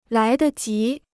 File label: "来得及", Chinese